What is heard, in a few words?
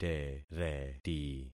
"tereti", concat syllables; Affixing experiment